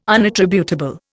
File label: "unattributable", Indian